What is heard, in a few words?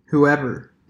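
"whoever" English, US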